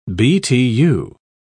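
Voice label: "btu", English, British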